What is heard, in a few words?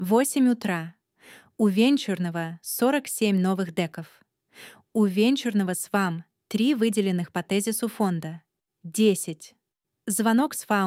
voiceover ru